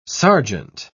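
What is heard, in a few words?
"serjeant", British English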